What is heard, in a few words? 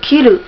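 "kiru", Japanese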